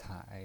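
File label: "cai", Mandarin Chinese, third tone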